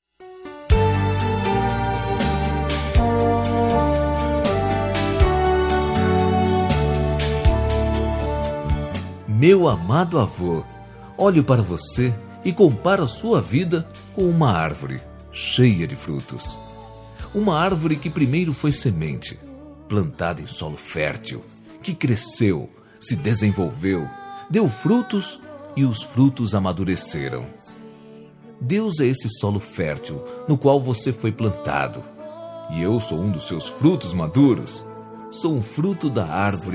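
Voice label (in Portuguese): Evangélica Aniversário de dovo, male, telemensagens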